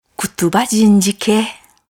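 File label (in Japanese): Hontou, しまくとぅば; 言葉クトゥバー ジン使ケー; 沖縄本島中南部の言葉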